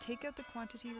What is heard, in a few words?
noisy voice bells; Speech-enhancement